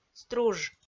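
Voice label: "струж", Komi-Zyrian, female; audio dict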